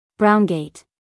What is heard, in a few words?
"brown gate" us female; everyone-can-use-english